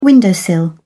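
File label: "windowsill", British English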